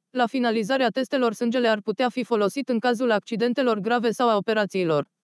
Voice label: adapted fem